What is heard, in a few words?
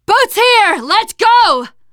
arrive boat initial, teengirl; player; survivor; voice